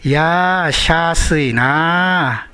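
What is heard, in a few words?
"shasue", Minamiyamagata dialect Japanese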